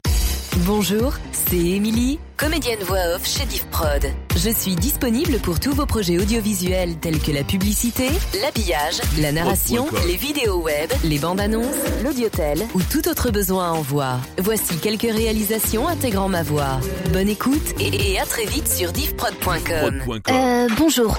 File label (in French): VO Generale